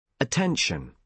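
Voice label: "attention", British English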